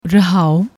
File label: rahoo, gurmat sangeet; Rahao